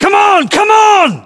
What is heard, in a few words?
voiceovers UK